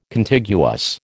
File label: "Contiguous", American